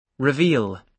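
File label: "reveal", British English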